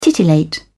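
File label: "titillate", British English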